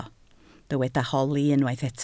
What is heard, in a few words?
"holi", Welsh